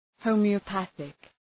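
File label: "homeopathic", English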